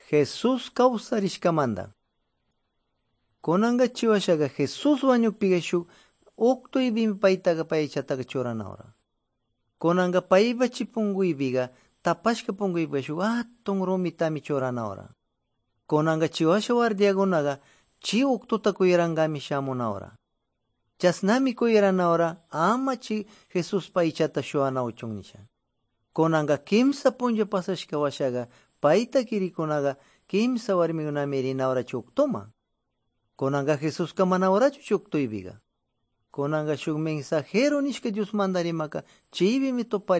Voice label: good news quichua de pastaza